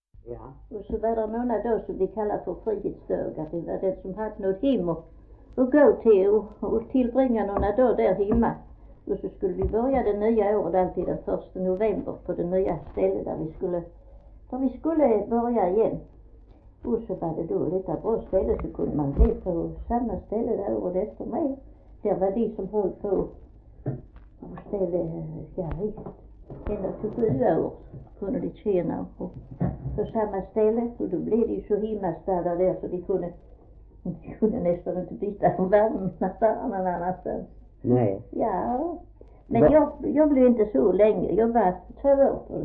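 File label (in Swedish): Fleninge Skåne, dialekter; Folkminnen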